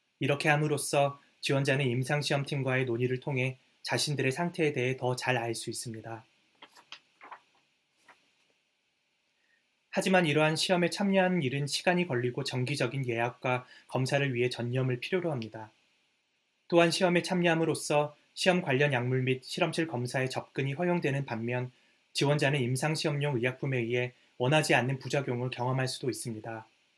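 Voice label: Korean General; GoLocalise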